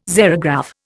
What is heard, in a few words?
"xerograph", Indian